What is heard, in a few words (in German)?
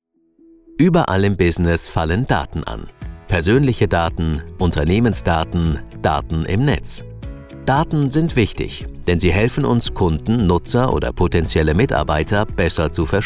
sprecher elearning datenschutz mit musik